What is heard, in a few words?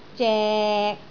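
"zek6", Cantonese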